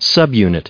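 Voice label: "subunit", US English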